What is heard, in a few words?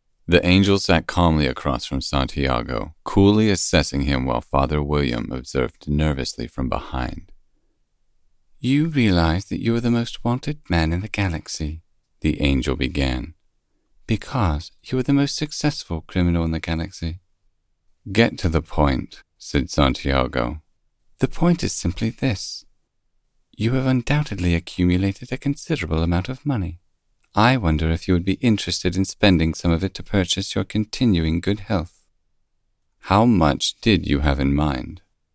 Third Person Sci Fi Male Female